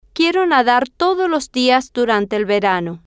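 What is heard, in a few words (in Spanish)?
quiero Nadar Todos Los Dias Durante El Verano, Spanish, female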